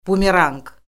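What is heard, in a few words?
"bumeranq", Azerbaijani; Orfoqrafiya Lüğəti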